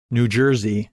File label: "New Jersey", English pronunciation